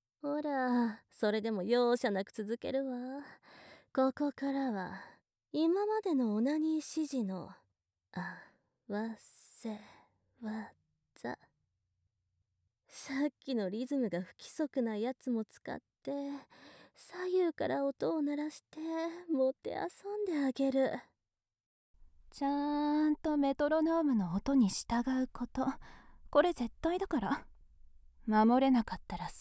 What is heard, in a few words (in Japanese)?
左右メトロノーム&変則リズムメトロノーム, ASMR; オナサポ; 女教師達にマゾバレしてはいけない絶対射精禁止のメトロノームリズムオナサポ♪